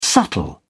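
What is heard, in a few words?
"subtle", British English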